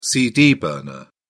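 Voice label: "cd burner", British English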